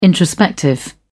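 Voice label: "introspective", English, uk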